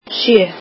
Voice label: icelandic seven